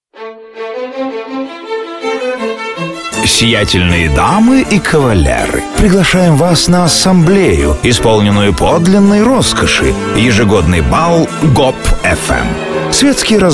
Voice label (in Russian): s yumorom